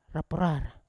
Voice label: "raparar" to split, Yawa